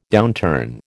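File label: "downturn", American English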